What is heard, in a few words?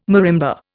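"marimba", Indian English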